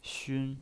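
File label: "xun", pinyin voice, first tone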